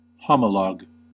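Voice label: "homolog", US English; pronunciations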